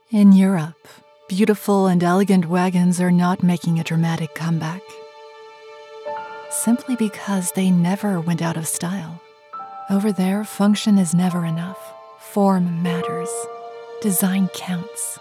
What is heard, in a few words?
promo automotive